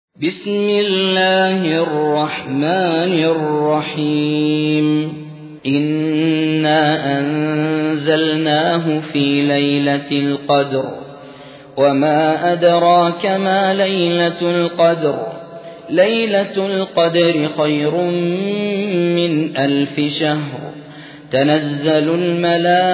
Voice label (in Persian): ترتیل; سوره قدر